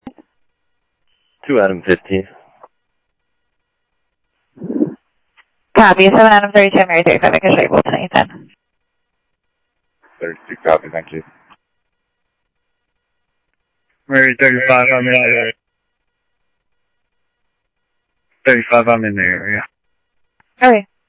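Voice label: Mesa Police Department, scanner audio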